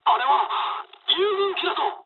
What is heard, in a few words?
player friendly fire mood med, speech jp